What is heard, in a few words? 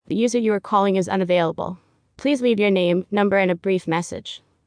vm greeting